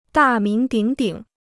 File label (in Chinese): "大名鼎鼎", Chinese